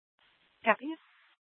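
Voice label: Police Department Dispatch, scanner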